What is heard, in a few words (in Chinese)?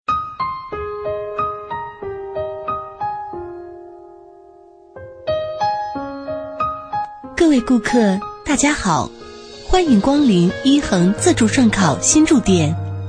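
女抒情, 配音; 一恒自助涮烤新筑店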